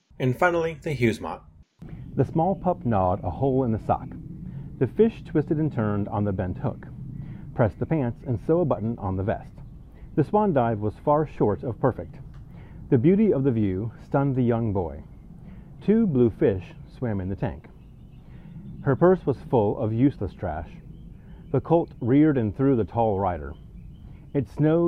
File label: wireless mic test